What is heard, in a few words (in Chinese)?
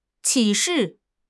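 "启事", Chinese; HSK6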